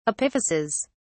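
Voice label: "epiphyses", British English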